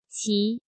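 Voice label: "Qí", Chinese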